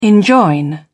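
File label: "enjoin", British English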